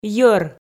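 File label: "йӧр", Komi, female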